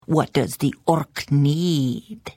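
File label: Hello, Khajiit female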